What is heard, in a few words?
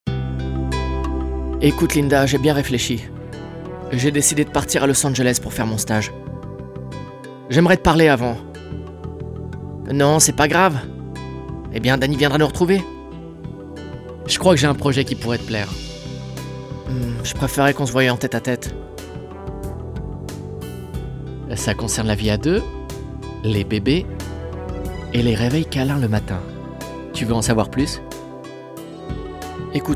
dubbing, French, male